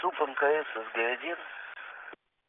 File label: Space to Ground; Expedition 39